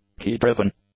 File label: "key-driven", english; adjectives